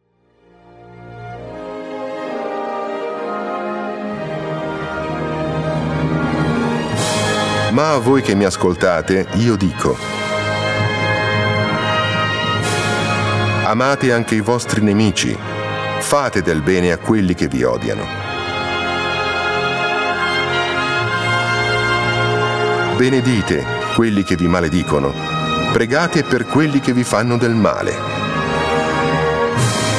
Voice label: Voice Of God